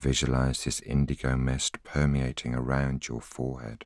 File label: voiceover